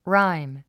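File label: "rhyme", English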